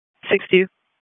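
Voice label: EMS Dispatch, p25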